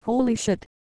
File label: "grenade", Microsoft Mary, Worms speechbanks